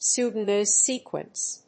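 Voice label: "pseudo noise sequence", English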